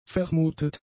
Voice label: "vermutet", German; adjectives